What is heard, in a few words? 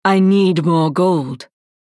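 Hero Insufficient Funds US English, Женщина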